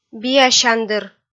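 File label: "биасяндыр", Komi, female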